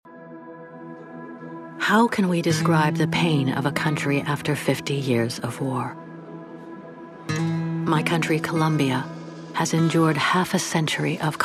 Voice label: Narration; When the Guns Go Silent